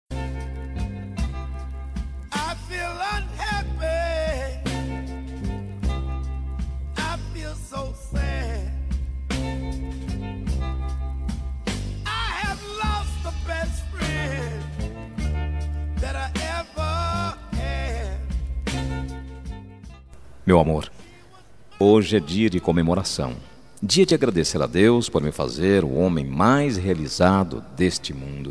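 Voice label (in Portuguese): birthday NAMORO male, telemensagem; aniversario de namoro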